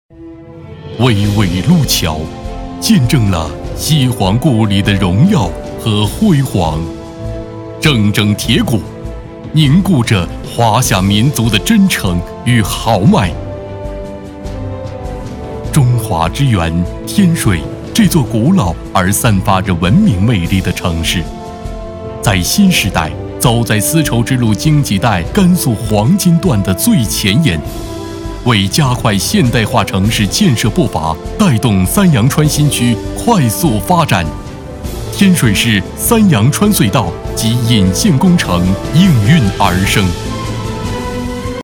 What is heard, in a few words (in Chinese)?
宣传片; 天水市工程